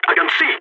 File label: blinded, balkan; vo